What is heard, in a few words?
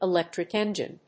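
"electric engine", English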